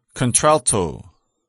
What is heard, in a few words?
"contralto"